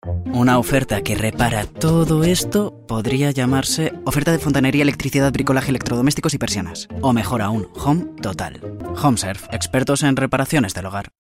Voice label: casual; Home Serve